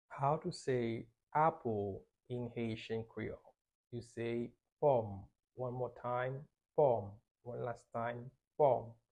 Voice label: How to say Apple in Haitian Creole Pom pronunciation by a native Haitian Teacher